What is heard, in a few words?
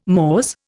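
"mores", Indian English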